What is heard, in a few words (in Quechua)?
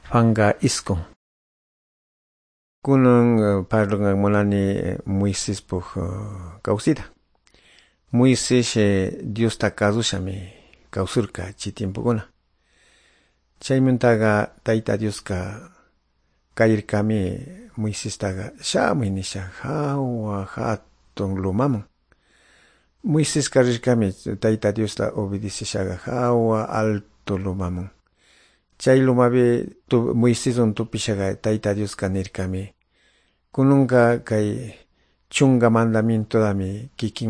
good news quichua de calderon